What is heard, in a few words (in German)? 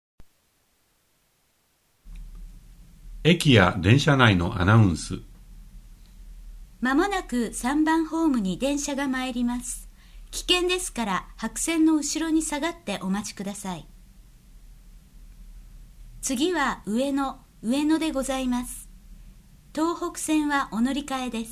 durchsagen am bahnhof oder im zug